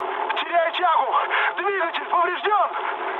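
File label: Russian damaged engine mood med, speech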